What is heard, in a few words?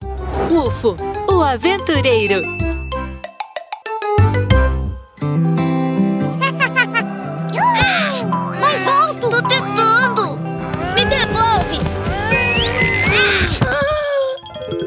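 brazilian portuguese voiceover character, Female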